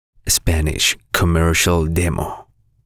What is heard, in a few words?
Spanish Commercial